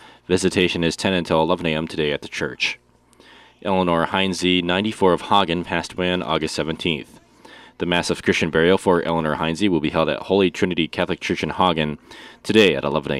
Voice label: Funeral Announcements